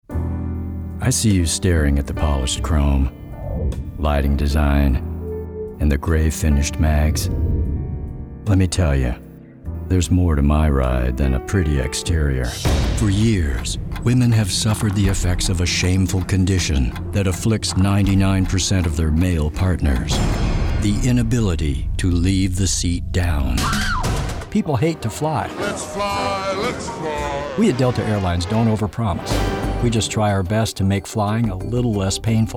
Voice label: Commercial